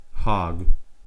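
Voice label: "hog", US English; pronunciations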